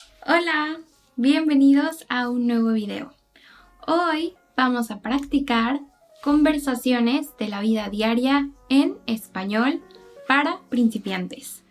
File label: Spanish teaching short